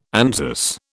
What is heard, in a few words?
"Anzus", British